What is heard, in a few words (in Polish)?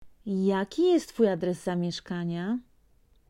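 "jaki jest twoj adres zamieszkania", Polish; Vocabulario: frases y palabras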